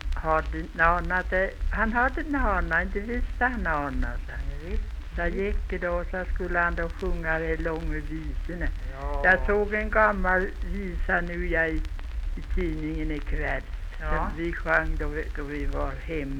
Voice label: dialekter

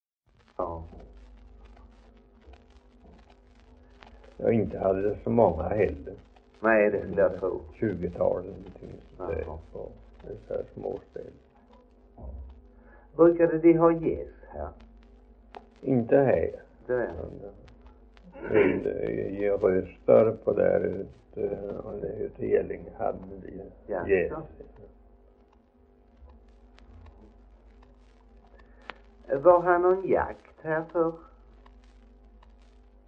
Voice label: Idala, dialekter; svenska sagor; Folkminnen

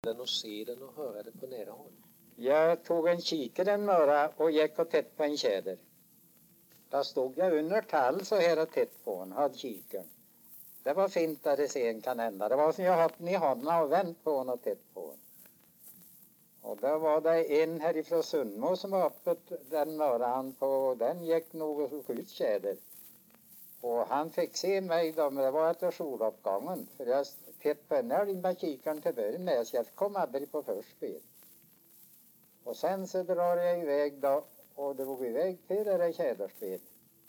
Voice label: dialekter; Folkminnen

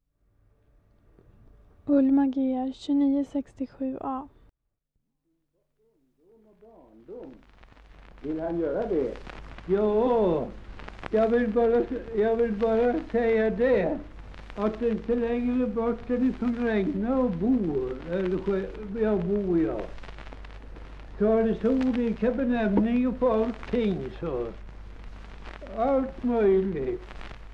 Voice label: Skedevi, dialekter; Folkminnen

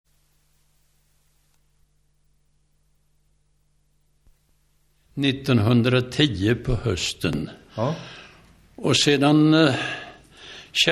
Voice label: Risinge, dialekter; Folkminnen

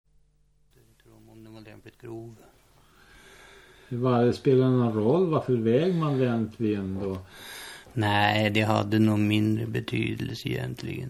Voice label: Ullånger, dialekter; Folkminnen

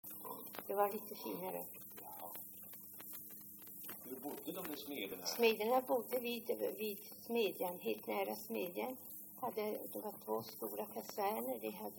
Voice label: dialekter; Folkminnen

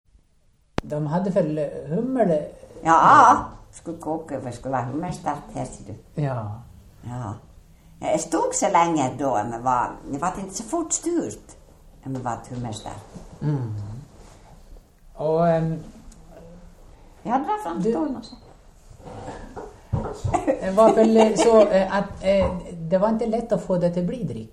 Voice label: Ål, dialekter; Svenska sagor; DAL; Folkminnen

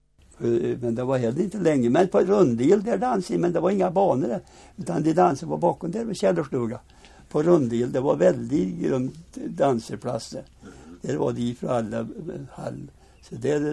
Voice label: Brunskog, dialekter; Svenska sagor; Folkminnen

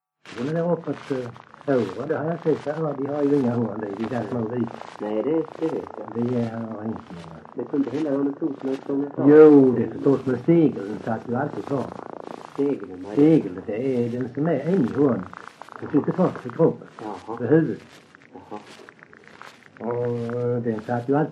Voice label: Öljehult, dialekter

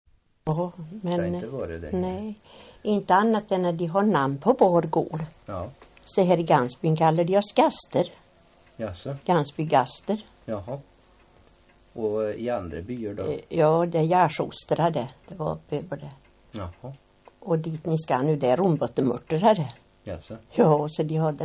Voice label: Värmskog, dialekter; Svenska sagor; Folkminnen

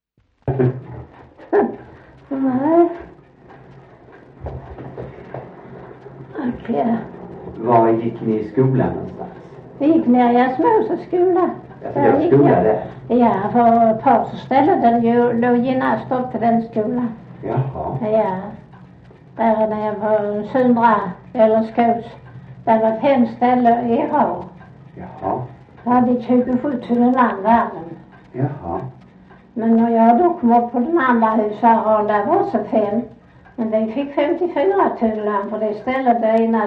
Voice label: Sövde, dialekter; Folkminnen

